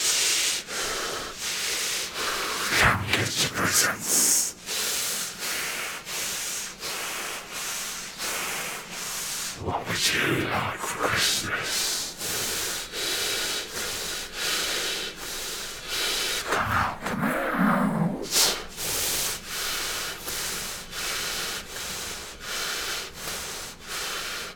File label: Snowman Talking; Phasmophobia